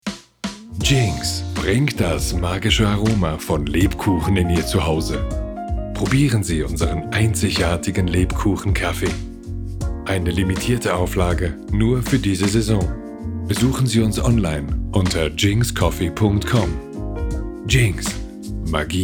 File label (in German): werbung; jingscoffee; Dominik Dachs und d Katzepirate